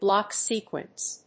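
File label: "block sequence", English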